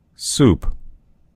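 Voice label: "soup", American English; gcompris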